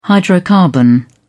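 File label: "hydrocarbon", British English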